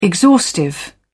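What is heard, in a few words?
"exhaustive", British English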